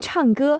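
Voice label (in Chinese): "唱歌" Chinese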